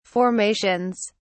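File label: "formations", English, British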